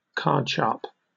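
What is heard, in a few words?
"cardsharp" English, British accent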